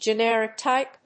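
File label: "generic type", English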